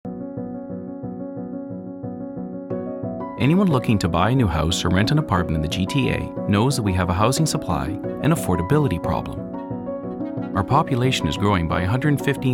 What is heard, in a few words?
male neutral north american accent psa public service; english canadian; announce